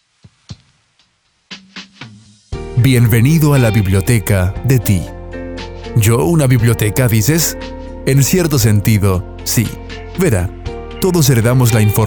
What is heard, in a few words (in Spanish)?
Narracion genetica; THEVOICREALM